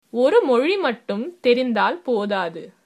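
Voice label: one language, Tamil, female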